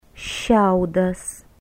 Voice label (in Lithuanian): "siaudas", lithuanian